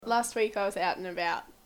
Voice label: Australian English, Last week I was out and about.